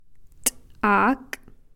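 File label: "spoonful", Takelma word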